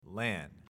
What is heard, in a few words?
LAN pronounced